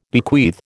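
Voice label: "bequeath", American English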